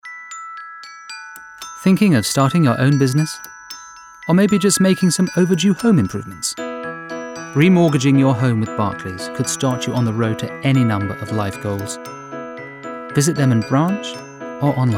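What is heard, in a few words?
Ad - informative soft sell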